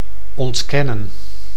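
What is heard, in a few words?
"ontkennen", Dutch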